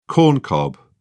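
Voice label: "corncob", British English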